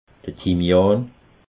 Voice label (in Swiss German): "thymian", alsace